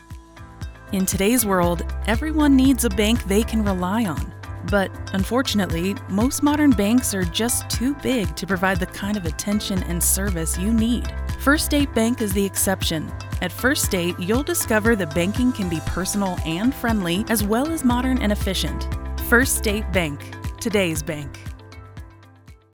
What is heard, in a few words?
Warm, Engaging, Upbeat, Relatable, Charming; First State Bank